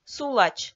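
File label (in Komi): "сулач", Komi, female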